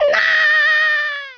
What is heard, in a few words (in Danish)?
"Nooo", Danish